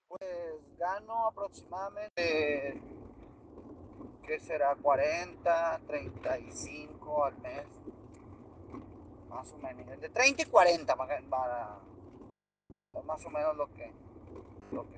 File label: whatsapp ptt